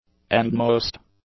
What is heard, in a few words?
"endmost", English; adjectives